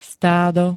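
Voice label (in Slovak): "stádo", slovenské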